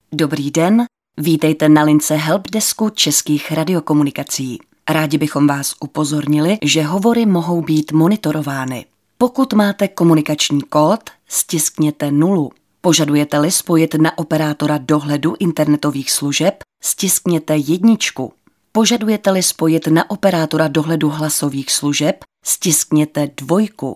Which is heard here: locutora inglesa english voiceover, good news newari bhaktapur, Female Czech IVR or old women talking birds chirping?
Female Czech IVR